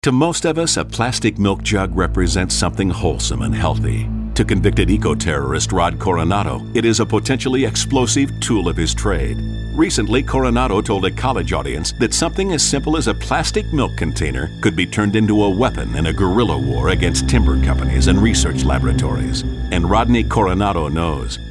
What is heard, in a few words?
radio spot